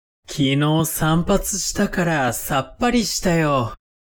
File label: man